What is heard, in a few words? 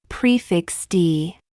"de" phoneme